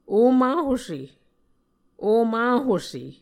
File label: "omahuse", Muscogee; Mvskoke Words with Nasalized Vowels